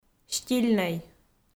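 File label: "стильнӧй", Komi-Zyrian, female; audio dict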